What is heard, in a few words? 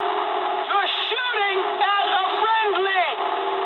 eng player friendly fire mood high, speech eng